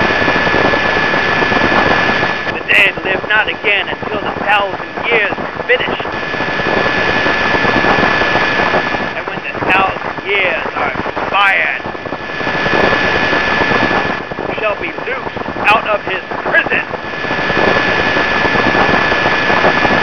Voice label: priest radio; svencoop; hunger